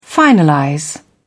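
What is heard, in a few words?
"finalize", British English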